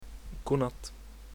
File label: good night, swedish